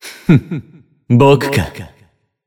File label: System Voice; BBCF; Jin